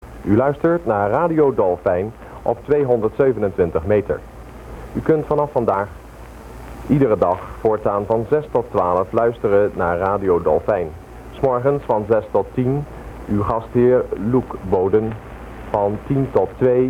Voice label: Opening announcement; 5th November 1966